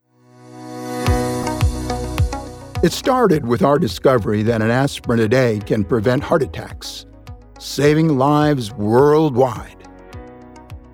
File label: Commercial